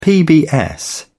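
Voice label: "pbs", British English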